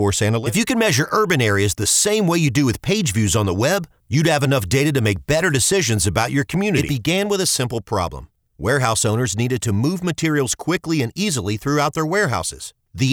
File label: Corporate style spots voice only